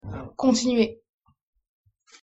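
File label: "continuer", French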